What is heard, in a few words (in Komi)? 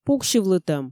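"пуксьывлытӧм", Komi, female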